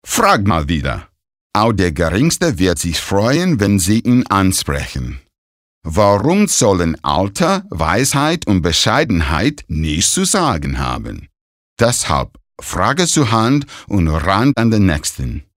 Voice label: Akzent Amerikanisch

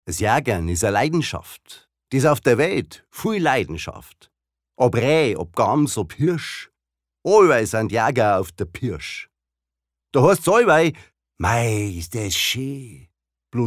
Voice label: Dialekt Bayerisch

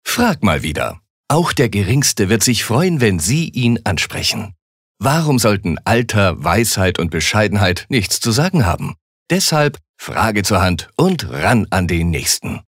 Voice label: Werbung, Dynamisch

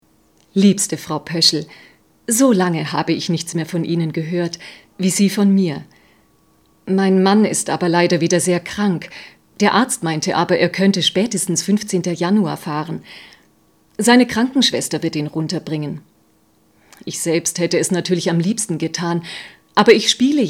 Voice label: Schauspiel Poetisch

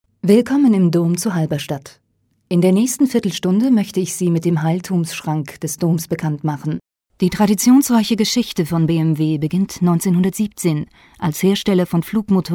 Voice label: Kommentar Neutral

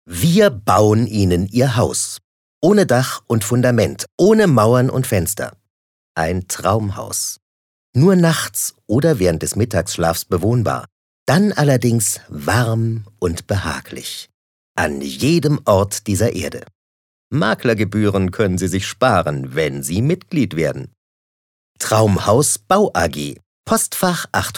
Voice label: Werbung Freundlich

